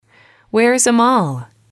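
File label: where is a mall, female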